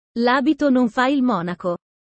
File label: italian sayings about life